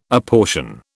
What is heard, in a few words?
"apportion", British